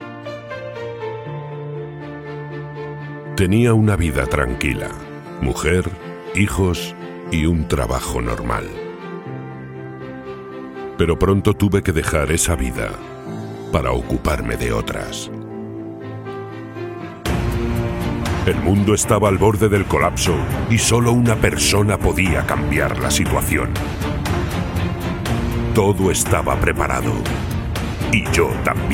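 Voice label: spanish movie trailers, Spain; voice actor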